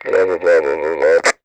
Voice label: Walkie Talkie Chatter; Communications